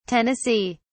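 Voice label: "tennessee", British English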